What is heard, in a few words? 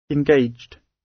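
"engaged", British; The arts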